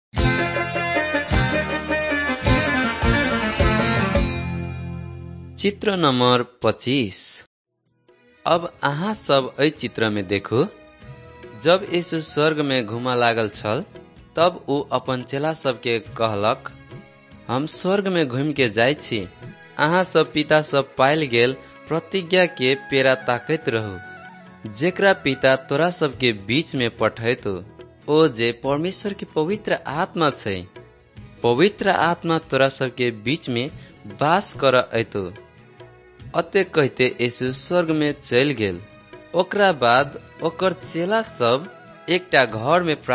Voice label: maithili thetiya